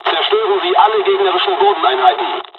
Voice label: ground attack, dialogs german; War Thunder

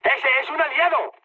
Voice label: player friendly fire, mood high, speech

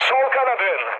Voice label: left wing, mood med, speech tur; War Thunder

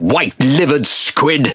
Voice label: Pirate Speech Quick Commands Caramba; World Of Warships

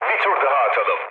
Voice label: bomb failure, mood low, speech tur; War Thunder

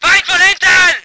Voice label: voice ger vehicle

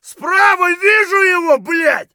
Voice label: flank right, voice; Escape from Tarkov

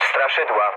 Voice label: enemy detected mood low, speech pol; War Thunder

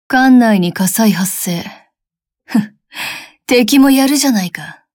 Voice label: Speech Alarms Fire Alarm; Kaga; Azur Lane

